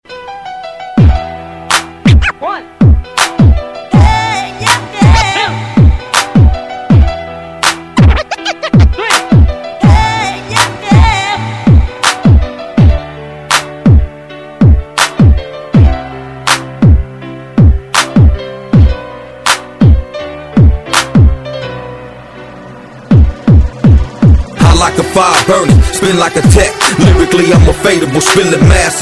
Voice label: English Vs Arabic, Ringtone; Versus Ringtone (VS)